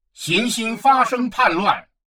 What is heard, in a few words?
advisor notification planet in revolt, chinese; Stellaris